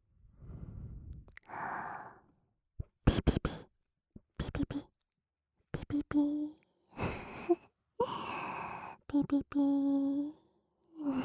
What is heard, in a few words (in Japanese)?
くちゅ無し版 朝帰りの姉からの目覚まし音声; アワビくちゅ無し版